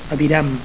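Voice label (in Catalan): "avui", Catalan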